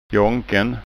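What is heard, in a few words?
"jonken", Scanian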